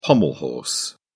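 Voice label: "pommel horse", British English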